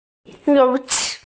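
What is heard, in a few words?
"you2xi4", Chinese, female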